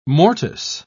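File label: "mortice", British English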